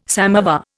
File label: "samovar", Indian